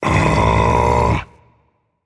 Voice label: seriously rage; chaac

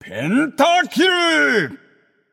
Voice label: alert notify kill, japanese; caster

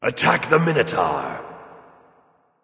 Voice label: attack m; smite; hades

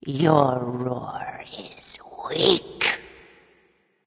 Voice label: taunt directed; smite; kali; anhur

